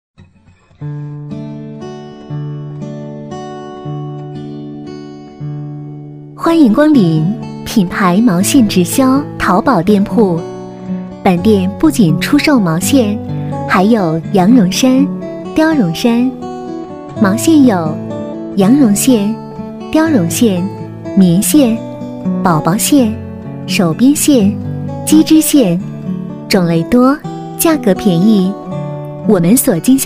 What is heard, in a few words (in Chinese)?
女1 淘宝店铺 毛线店, 国语女声配音, 磁性; 淘宝商城毛线店